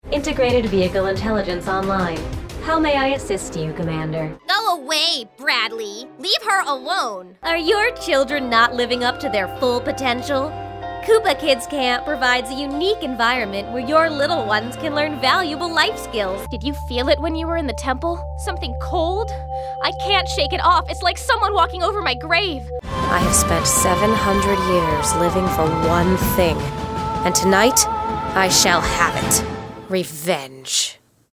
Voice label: Animation